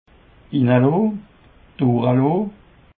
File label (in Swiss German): "ninlon", Alsace